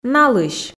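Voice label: "налысь", Komi, female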